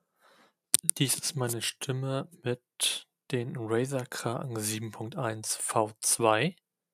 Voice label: Razer Kraken 7.1; Mikrofon